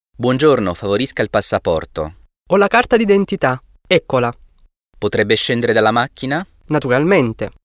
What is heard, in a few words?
dialog